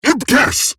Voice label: Surprised Eximus Corpus Crewman; Warframe; Battle Barks